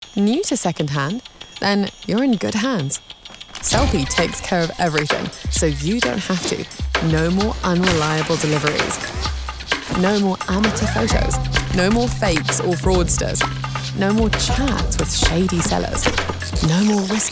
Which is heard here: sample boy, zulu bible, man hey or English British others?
English British others